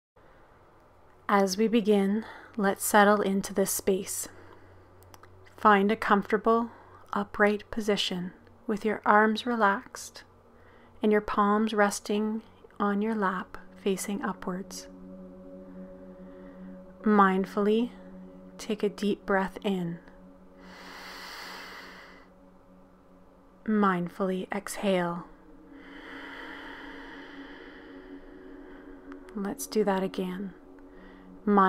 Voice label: Box Breathing Guided, Meditation; 5 Minute Meditations